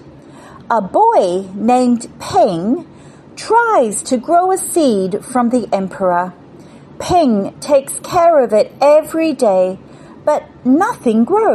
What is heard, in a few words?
English Ambassador Script